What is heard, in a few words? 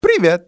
"hello", male; XBOX; civ